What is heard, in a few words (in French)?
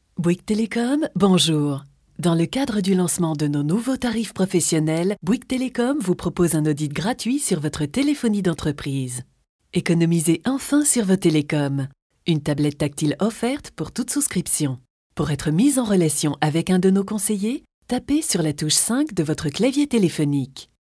voix off message téléphonique